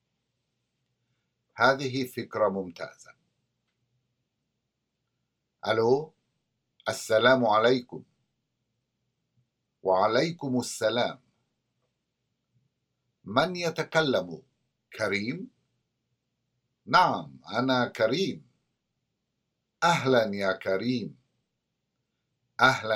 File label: Conversation; SALAMAAT